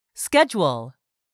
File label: "SCHEDULE", english; 3000 words